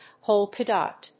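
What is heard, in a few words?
"polka dot", English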